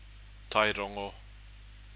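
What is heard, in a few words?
"tairongo", Māori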